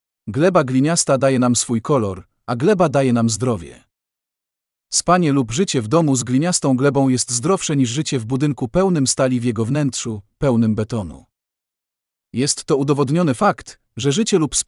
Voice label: POLISH